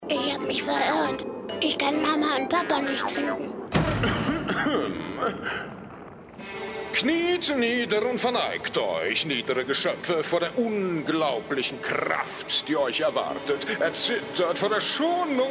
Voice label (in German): trickstimmen mix kurz